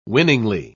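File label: "winningly", British English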